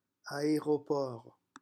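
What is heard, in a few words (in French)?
"aeroport", French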